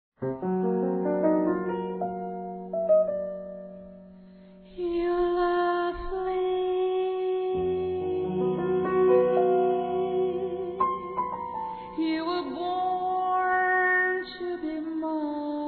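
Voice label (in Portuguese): DESCULPAS ROMANT. masculino, Telemensagens; Telemensagens 2017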